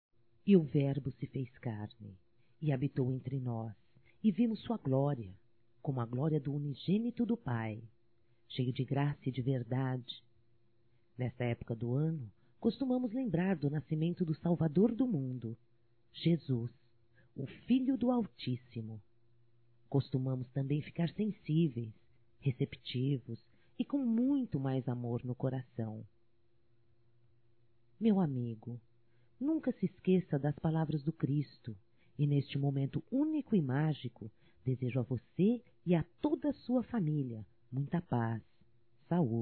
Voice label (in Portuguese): Amiga Feminino, Telemensagens, Natal; Telemensagens 2017; Natal & Ano Novo